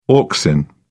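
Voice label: "auxin", English, British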